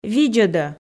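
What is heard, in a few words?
"видзӧдӧ", Komi, female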